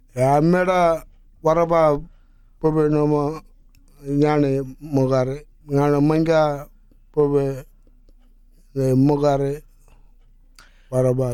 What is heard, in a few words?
Yindijibarndi; Look after your blood campaign